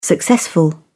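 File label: "successful", British English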